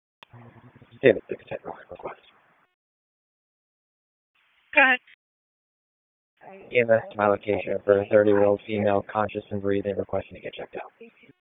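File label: Police Southwest District Dispatch, p25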